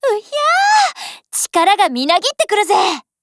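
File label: FEED Japanese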